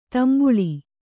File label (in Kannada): "ತಂಬಳಿ", Kannada